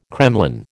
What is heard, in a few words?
"kremlin", American